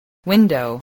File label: "window", voice, english